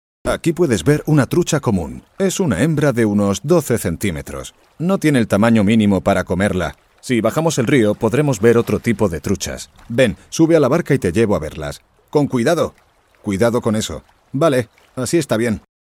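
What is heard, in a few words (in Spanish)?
doblaje España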